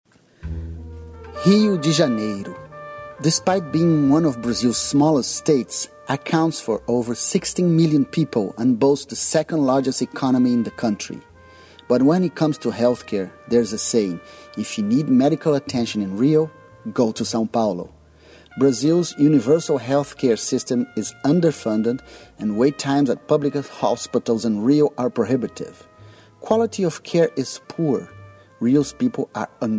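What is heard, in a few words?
Brazilian Portuguese, male, English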